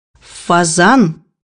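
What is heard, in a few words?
"pheasant", Russian; bukvar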